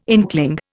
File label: "inkling", Indian